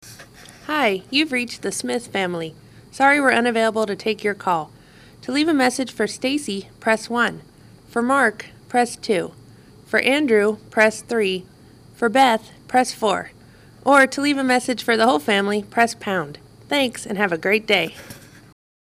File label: greeting, voicemail